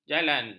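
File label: "jalan", Malay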